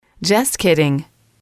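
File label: "just kidding", English, female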